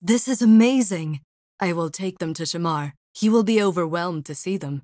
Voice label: dialog; TimeToEscape Hannah; Dialogues